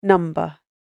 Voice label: Number British Female Voice; Talking Sound Effects; human voice sound effect

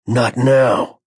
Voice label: Not Now Male, Exclamation

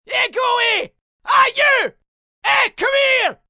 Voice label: Hey You Scots, Male; Calls, attention; Talking

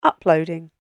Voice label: "Uploading" British Female Voice; Talking Sound Effects; spoken word

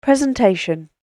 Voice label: Presentation British Female Voice; talking; human voice; spoken word

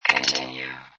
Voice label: Robot Voice "Continue"; Robot Sound Effects; Lynne Music

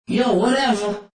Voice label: Yo Whatever, Attitude, Male

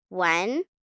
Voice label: Numbers "One", 1 child, girl counting; Talking Sound Effects

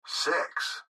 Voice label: Gps Voice, Male

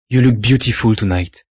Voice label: Look Beautiful, Male; Talking Sound Effects; Sound Effects:Voice; VoiceBox

